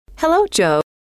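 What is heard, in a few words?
"hello", female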